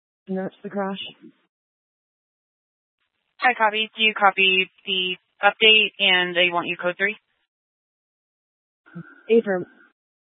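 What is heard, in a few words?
Fire Dispatch, Scanner audio recording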